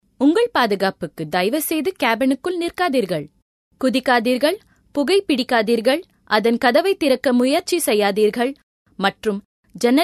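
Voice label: Tamil, female; voice-over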